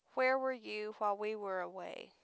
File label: female